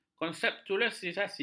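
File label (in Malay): "konseptualisasi", Malay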